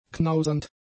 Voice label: "knausernd", German; adjectives